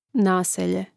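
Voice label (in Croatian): "naselje", Hrvatski; mreznik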